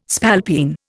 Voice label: "spalpeen", Indian

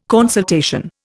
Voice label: "consultation", Indian

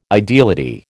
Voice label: "ideality", American

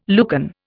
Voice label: "Lucan", Indian

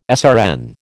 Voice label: "SRN", American

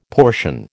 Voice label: "portion", American

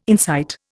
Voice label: "insight", Indian

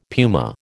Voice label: "puma", American

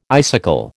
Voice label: "icicle", American

